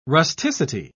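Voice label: "rusticity", British English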